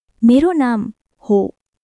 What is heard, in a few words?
Nepali, basic phrases